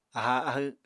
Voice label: "aháa ahw", Apatani